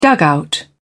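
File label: "dugout", British English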